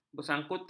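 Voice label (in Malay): "bersangkutan", Malay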